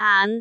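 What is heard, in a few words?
"aan2", Cantonese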